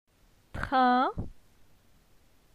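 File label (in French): "Trin", French; phonetique; la syllabe in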